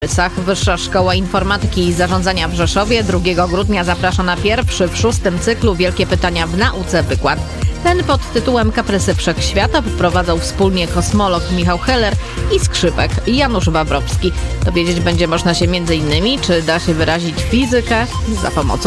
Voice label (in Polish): wiadomosci, radio; RADIO ESKA RZESZOW